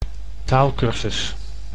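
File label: "taalcursus", Dutch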